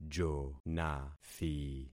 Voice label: "jonathi", concat syllables; Affixing experiment